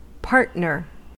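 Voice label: "partner", US English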